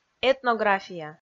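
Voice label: "этнография", Komi, female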